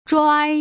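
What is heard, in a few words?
"zhuai", Putonghua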